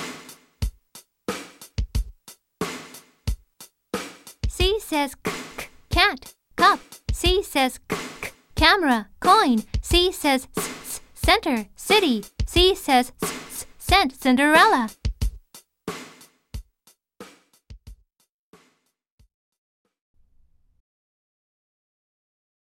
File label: Chant